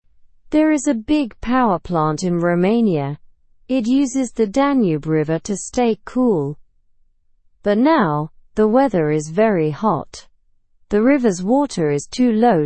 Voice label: learn english through news beginner slow; romania s nuclear plant closed due to heat